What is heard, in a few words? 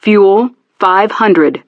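"Fuel 500", English; DCS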